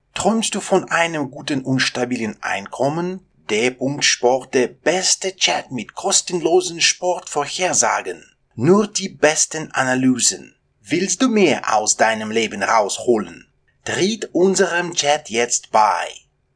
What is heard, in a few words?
GERMAN